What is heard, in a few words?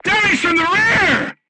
voice message shot back, crew tanks portuguese